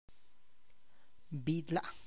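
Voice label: tlacochahuaya, Zapotec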